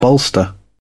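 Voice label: "bolster", British English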